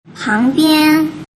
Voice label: "pangbian", mandarin

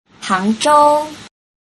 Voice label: "hangzhou", Mandarin

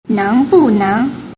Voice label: "nengbuneng", mandarin

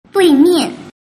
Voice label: "duimian", mandarin

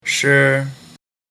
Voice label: "shi", mandarin

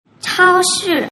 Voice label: "chaoshi", mandarin

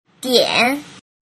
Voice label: "dian", Mandarin

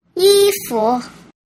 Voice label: "yifu", mandarin

